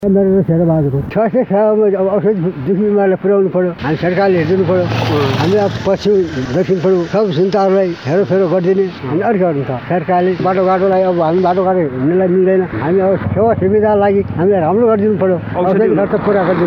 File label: voxpop